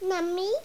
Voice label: Toddler Nummy